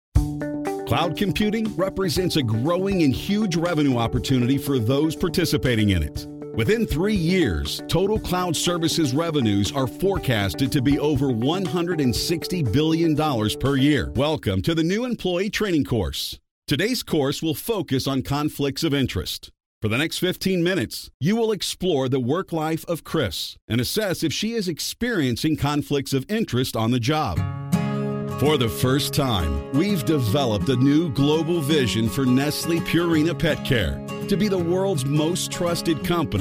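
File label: American English, E-learning; GoLocalise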